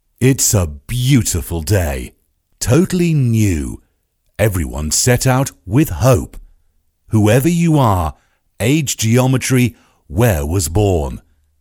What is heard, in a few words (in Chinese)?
宝宝 试音; 外语; 英语; 广东龙泰